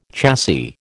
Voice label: "chassis", American

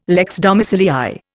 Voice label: "lex domicilii", Indian

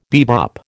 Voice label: "bebop", American

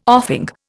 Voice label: "offing", Indian

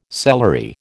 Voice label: "celery", American

